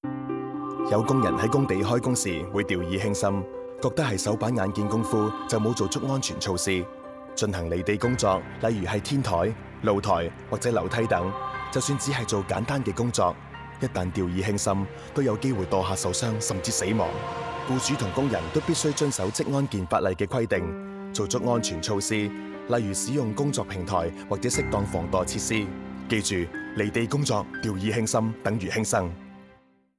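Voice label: Work at height Safety radio, Cantonese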